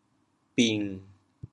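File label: "bing1", Teochew, chaoyang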